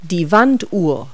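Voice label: "clock wall", English words; Multi-Lingual Talking Picture Dictionary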